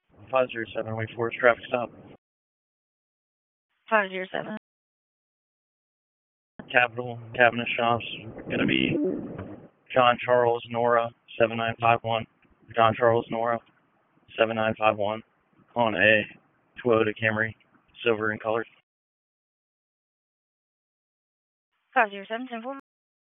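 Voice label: Police Dispatch; Wake Forest Police Disp; wake p25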